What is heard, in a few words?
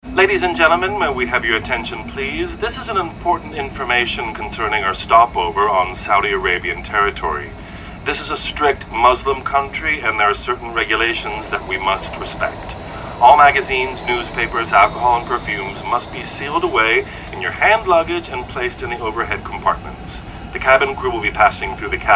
male american english plane comercial